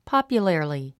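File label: "popularly", English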